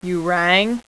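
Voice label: "You", female; VOICE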